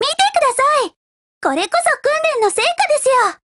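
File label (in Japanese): win, Japanese; MP5Mod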